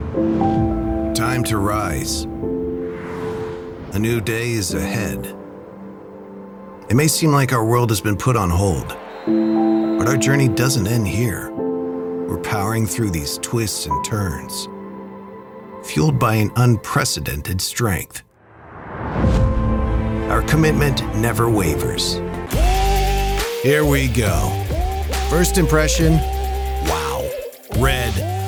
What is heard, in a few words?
Narration